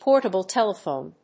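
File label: "portable telephone", English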